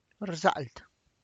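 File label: tlacochahuaya, Zapotec; throws, drops (heavy objects)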